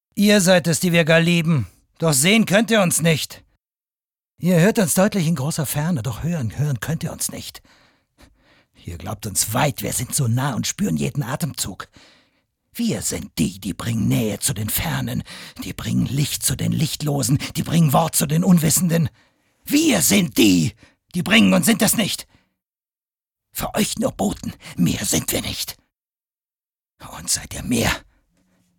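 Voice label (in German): aggressiv, Männer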